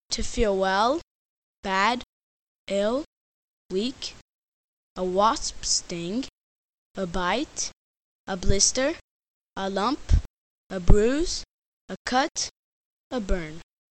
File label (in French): anglais health; vocabulaire